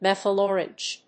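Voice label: "methylorange", English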